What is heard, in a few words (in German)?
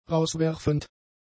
"rauswerfend", German; adjectives